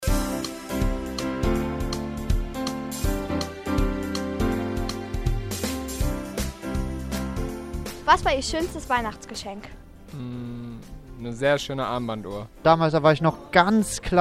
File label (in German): umfrage geschenke